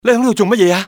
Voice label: "what are you", male; XBOX; civ